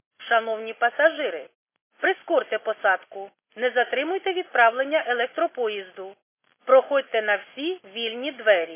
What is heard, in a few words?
khs st female, subway station